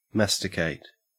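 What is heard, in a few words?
"masticate", Australian English; pronunciations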